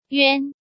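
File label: "Yuān", Chinese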